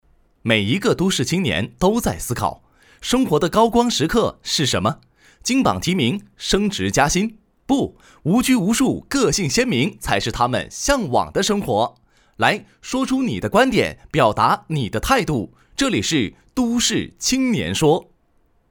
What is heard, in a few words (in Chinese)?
节目版头; 都市青年说